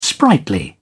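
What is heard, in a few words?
"sprightly", English, British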